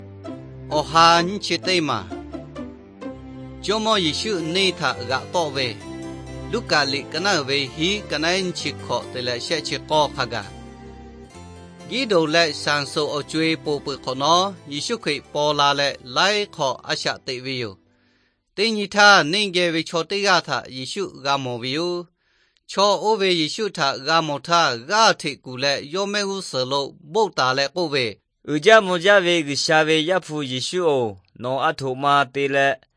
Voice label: victory through god lahu na, nt drama; bible; lahu thailand bible society

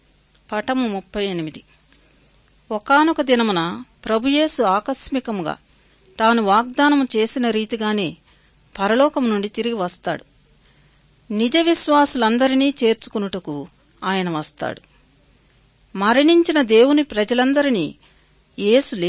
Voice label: good news telugu

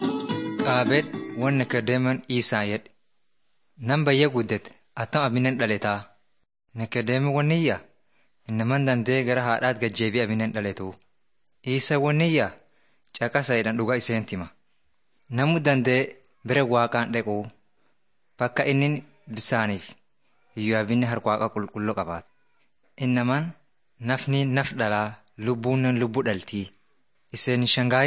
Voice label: teaching tape orma; bible lessons 53 56

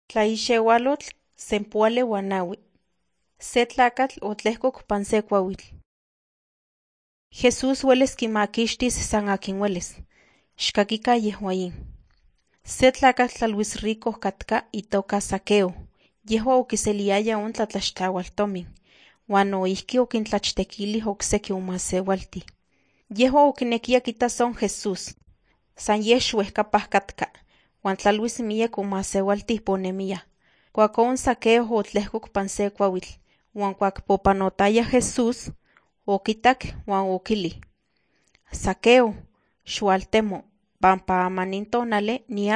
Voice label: nahuatl guerrero tlapa; the bible league nt drama

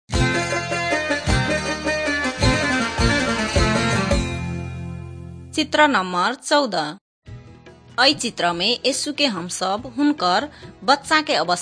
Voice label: maithili thetiya

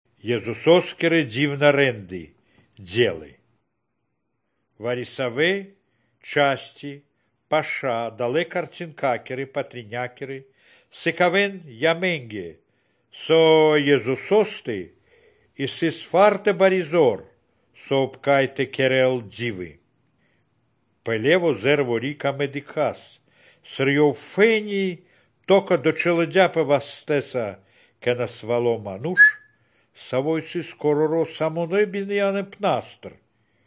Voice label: good news baltic romani

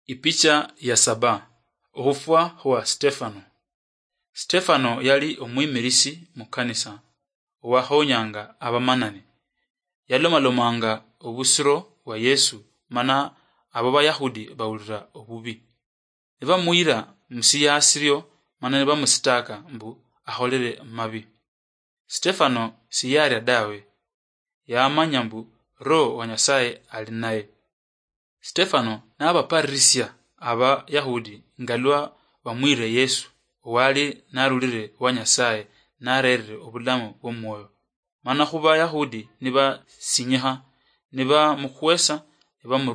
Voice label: acts of the holy spirit luyia marachi, drama; oluluyia; bible

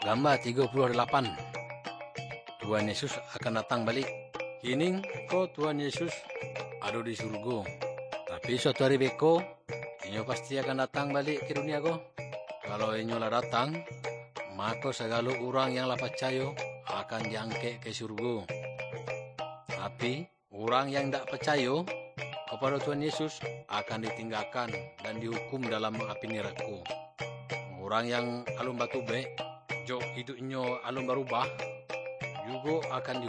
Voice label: good news minangkabau muko muko; kaba ilo

